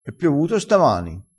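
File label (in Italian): "scossa", dialettale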